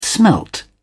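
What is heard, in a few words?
"smelt", British English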